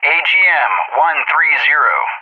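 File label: "AGM-130", English; JTAC; Weapon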